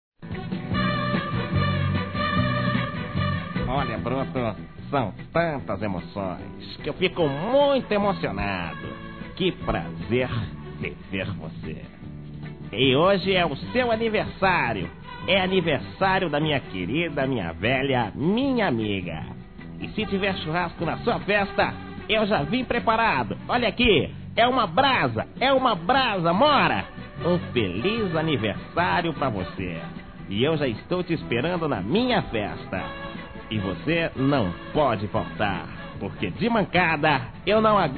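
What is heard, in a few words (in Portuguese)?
female, Engraçadas; Telemensagens; Telemensagens 2017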